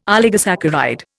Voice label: "oligosaccharide", Indian

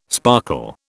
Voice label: "sparkle", British

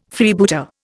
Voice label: "Freebooter", Indian

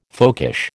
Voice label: "folkish", American

